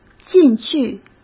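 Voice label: "进去", Mandarin Chinese; hsk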